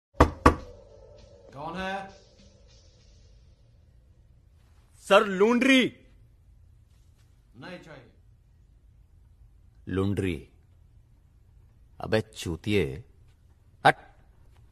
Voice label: Dailog - Tik Tok Ringtone; Delhi Belly